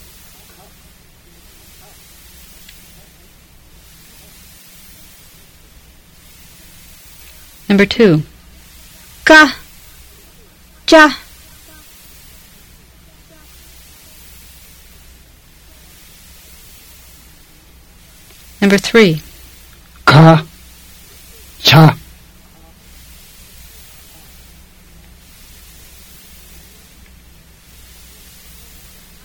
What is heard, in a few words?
Fluent Tibetan drill